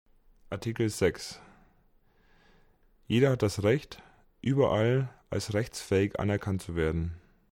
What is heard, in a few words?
German